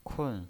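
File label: "kun", pinyin, Mandarin Chinese, fourth tone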